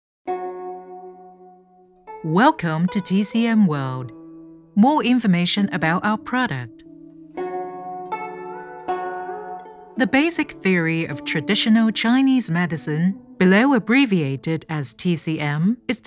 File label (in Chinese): 英文专题中医药学介绍